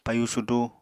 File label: "payu sudu", Apatani